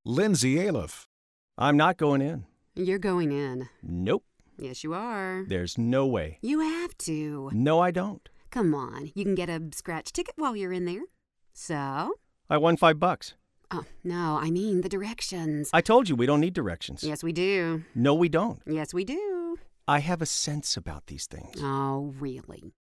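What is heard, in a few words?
husband, voice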